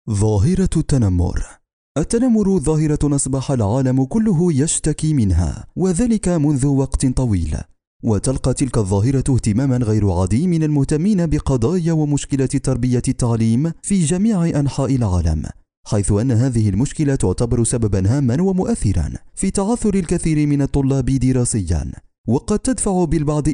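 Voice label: Arabic, male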